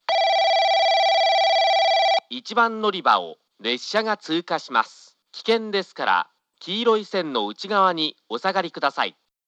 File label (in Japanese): 1番のりば通過放送 男声; 宇佐; 旧放送; 1 中津方面